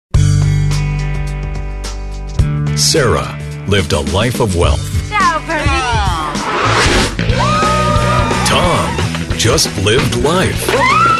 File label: english international movie trailers; Voz masculina; neutral en inglés norteamericano; sin acento regional